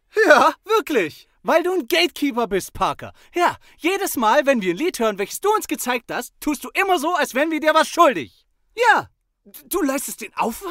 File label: Character